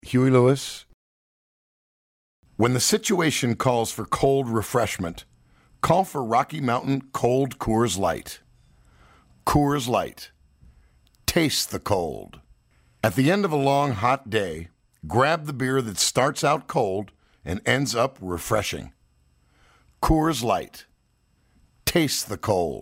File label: commercial; Innovative LA